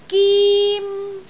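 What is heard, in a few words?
"gim1", Cantonese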